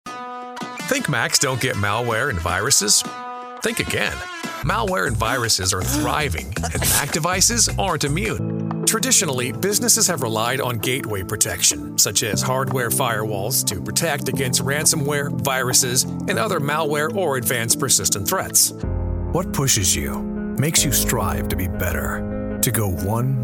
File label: Video Tutorial and Training